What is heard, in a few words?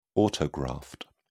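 "autograft", British English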